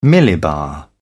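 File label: "millibar", British English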